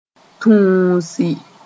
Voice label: "tũusi", moore; choose

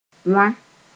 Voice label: "wã", Mooré; grind

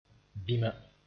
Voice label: "bĩma", moore

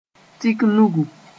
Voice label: "tik nugu", Moore; sign

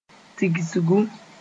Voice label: "tigsgu", Moore; harvest

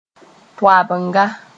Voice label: "toabenga", moore

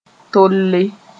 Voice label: "tole", Mooré

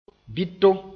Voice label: "bɩto", moore; sorrel

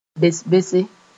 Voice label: "bes-bese", Mooré

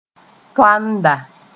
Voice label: "toanda", moore

